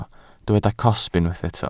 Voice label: "cosb", welsh

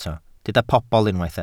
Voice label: "pobl", Welsh

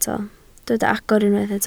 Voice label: "agor", Welsh

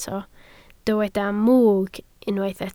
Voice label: "mwg", Welsh

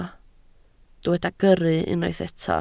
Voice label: "gyrru", Welsh

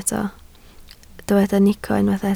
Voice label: "nico", Welsh

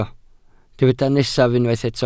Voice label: "nesaf", Welsh

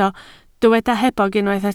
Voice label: "hebog", Welsh

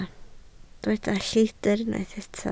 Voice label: "lleidr", Welsh

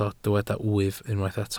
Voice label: "wyf", Welsh

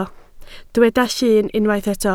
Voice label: "llun", Welsh

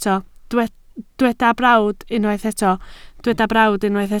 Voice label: "brawd", Welsh

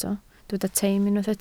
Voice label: "teim", Welsh

